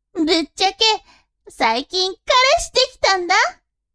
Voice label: woman